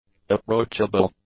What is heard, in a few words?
"approachable", English; adjectives